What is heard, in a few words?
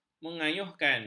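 "mengayuhkan", Malay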